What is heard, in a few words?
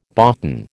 "boughten", American